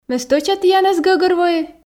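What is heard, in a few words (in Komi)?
ме стӧча тіянӧс гӧгӧрвои, Komi, female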